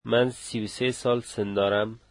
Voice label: Im thirty three years old, Dari

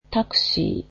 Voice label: "taxi", Japanese

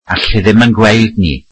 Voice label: welsh he cannot see us

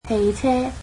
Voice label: "car", cantonese

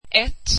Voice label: swedish one

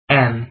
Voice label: "m", afrikaans alphabet

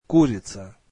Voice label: russian chicken

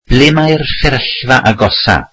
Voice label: welsh Where is the closest pharmacy

